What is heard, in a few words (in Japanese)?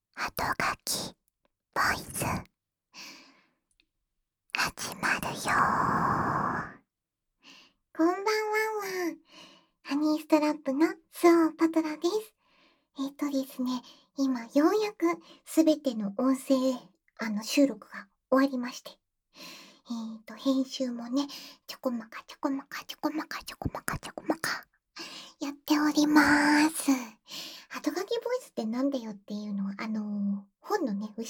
フリートーク「あとがきボイス」